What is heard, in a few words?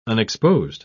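"unexposed", British English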